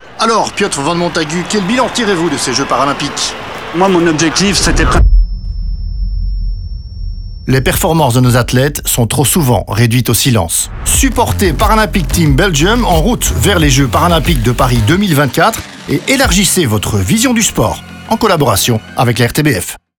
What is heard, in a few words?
radio Fr